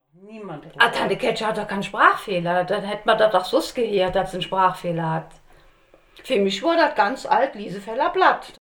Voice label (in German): liesefella platt; Emmelshausen / Liesenfeld